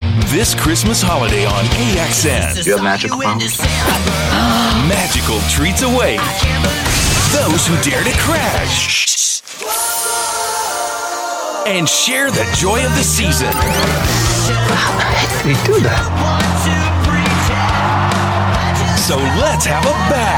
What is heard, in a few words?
US English, Male; AXN Xmas Line Up 2009